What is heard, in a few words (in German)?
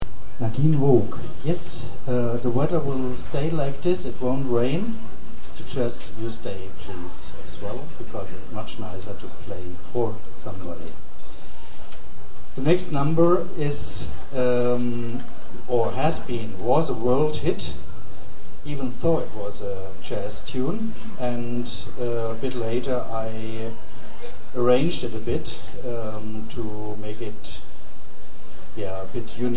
Ansage